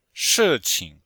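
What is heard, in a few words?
"shi4qing5", Chinese; Cours de Chinois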